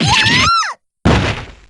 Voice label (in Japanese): superdamage, jp; p4u; yukari